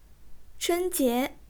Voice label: "chun1jie2", zhongwen